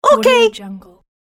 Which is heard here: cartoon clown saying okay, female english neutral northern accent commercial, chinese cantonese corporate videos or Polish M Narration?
cartoon clown saying okay